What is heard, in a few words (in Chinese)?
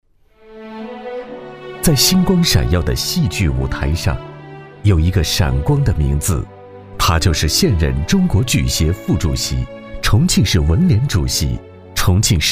专题 人物 解说 感动 人物 风格